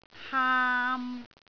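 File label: "haam3", Cantonese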